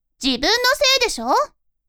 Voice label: woman